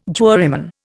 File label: "juryman", Indian